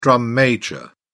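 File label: "drum major", British English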